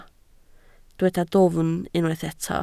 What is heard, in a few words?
"dwfn", Welsh